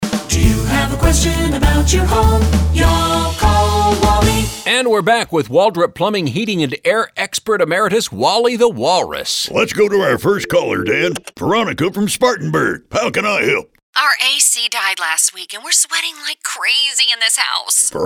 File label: radio ads; Sweaty Dead Fish